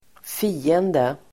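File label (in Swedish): "fiende", Swedish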